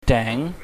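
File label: "deng", Cantonese, mid rising tone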